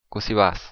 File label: how are you, occitan